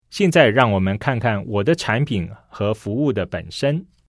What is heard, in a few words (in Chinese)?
CHINESE